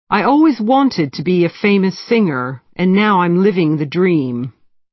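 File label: wheel, British English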